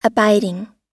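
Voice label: "abiding", American English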